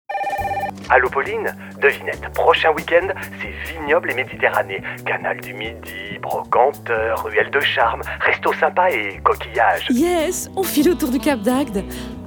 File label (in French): spot radio; cap d agde; fb herault toulouse